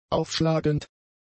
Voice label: "aufschlagend", German; adjectives

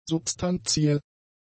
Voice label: "substantiell", German; adjectives

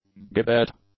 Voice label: "gibbet", English; nouns

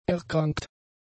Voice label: "erkrankt", German; adjectives

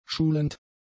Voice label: "schulend", German; adjectives

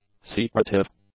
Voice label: "secretive", English; adjectives

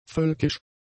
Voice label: "völkisch", German; adjectives

